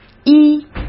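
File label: "一", Mandarin Chinese; chinese-flashcards; hsk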